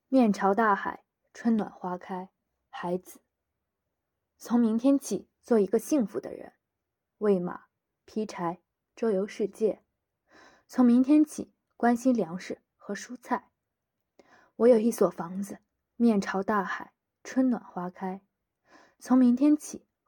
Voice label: 诗歌

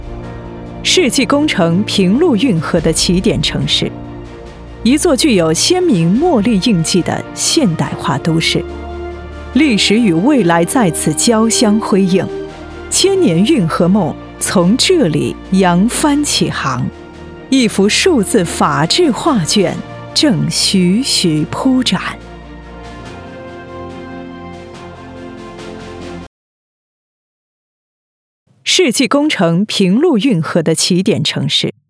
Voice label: 专题 大气 沉稳 成熟 城市 宣传片

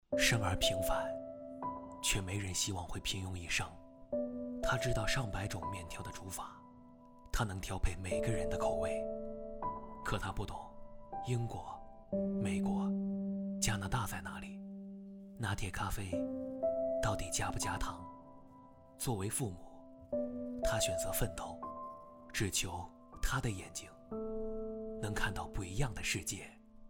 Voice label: 舒缓宣传片配音

